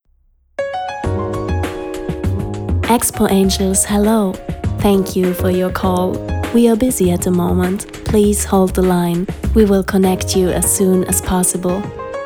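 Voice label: Teleansage English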